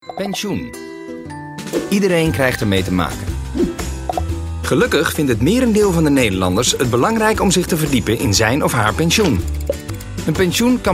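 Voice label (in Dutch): neutraal, stem voice-over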